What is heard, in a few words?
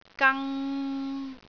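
"gang3", Cantonese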